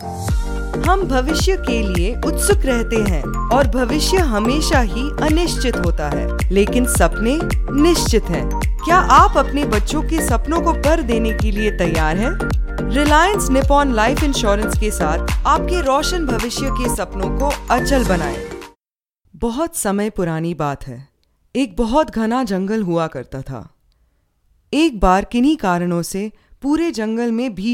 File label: Hindi female